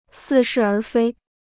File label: "似是而非", Chinese